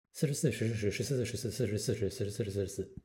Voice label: Mandarin fast; phon lab